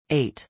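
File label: "eight", English; éit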